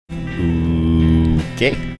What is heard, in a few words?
drawn out okay